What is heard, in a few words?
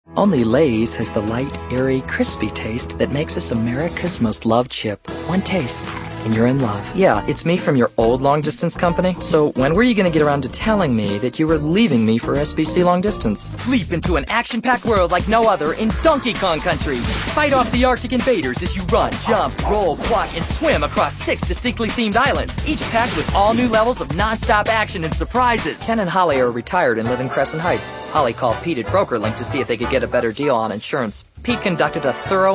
male, natural character